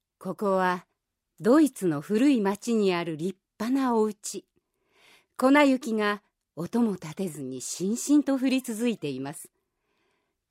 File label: narration